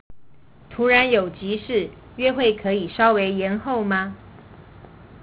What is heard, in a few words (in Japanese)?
Chugokugo